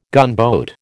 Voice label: "gunboat", American